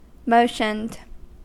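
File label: "motioned", US English; pronunciations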